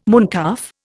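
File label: "mooncalf", Indian English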